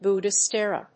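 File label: "Buddhist Era", English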